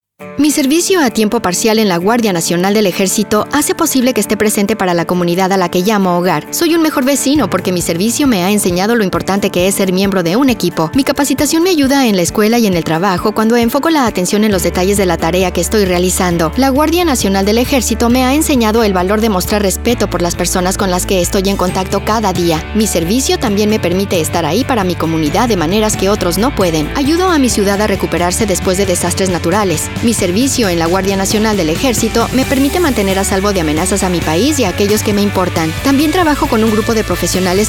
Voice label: radio spanish